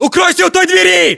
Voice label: order cover doorway, ru; localized english

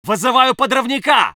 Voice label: voice sov infantry

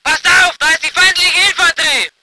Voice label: voice ger vehicle

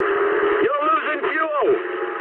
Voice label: eng tank damaged mood high, speech eng; War Thunder

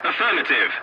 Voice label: voice message yes, english; War Thunder

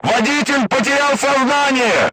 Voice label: voice message driver contused, crew tanks russian

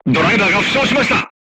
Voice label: voice message driver wounded, crew tanks japanese

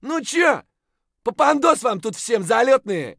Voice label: mutter; Escape from Tarkov; scav2

